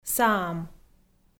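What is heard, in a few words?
"саам", Komi, female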